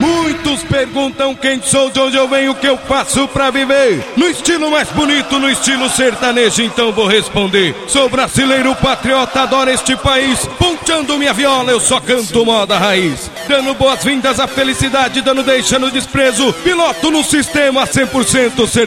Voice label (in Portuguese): locucao